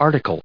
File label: "article", us